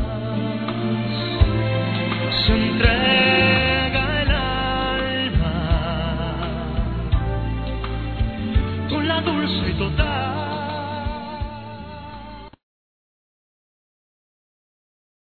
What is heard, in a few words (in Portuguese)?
ANIV. VOVÔ-F, Telemensagens; Aniversário Infantil